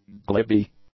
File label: "glibby", english; adjectives